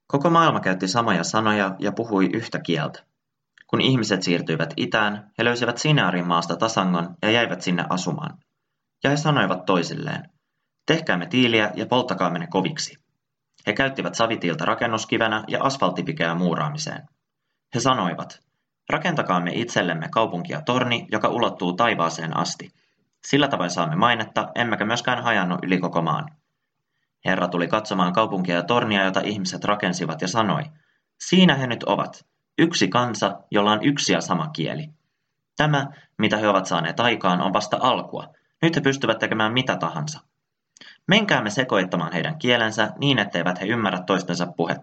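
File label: Finnish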